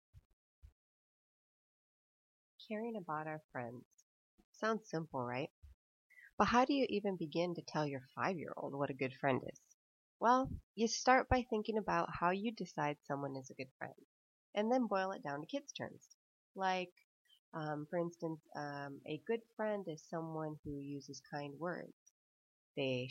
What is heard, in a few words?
peer social voice